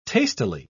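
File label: "tastily", British English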